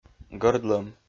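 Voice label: "гӧрдлӧм", Komi-Zyrian, male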